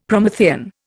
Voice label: "Promethean", Indian; Dictionary

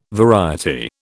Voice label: "variety", British

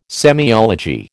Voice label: "semiology", American

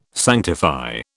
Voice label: "sanctify", British

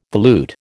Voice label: "volute", American

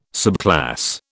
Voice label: "subclass", British

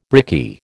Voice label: "brickie", American

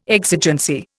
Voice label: "exigency", Indian

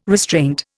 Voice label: "restraint", Indian

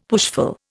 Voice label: "pushful", Indian; Dictionary

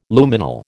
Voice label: "Luminal", American

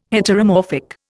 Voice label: "Heteromorphic", Indian

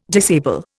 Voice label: "disable", Indian

